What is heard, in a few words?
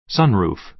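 "sunroof", American English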